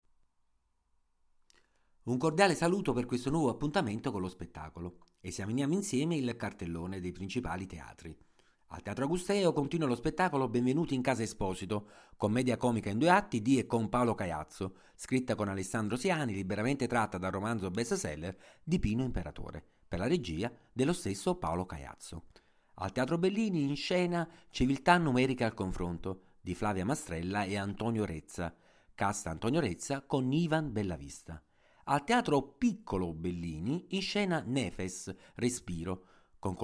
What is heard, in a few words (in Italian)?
Annuncio